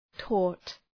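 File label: "taught", English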